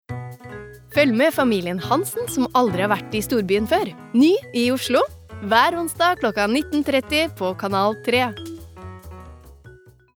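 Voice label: Norwegian promo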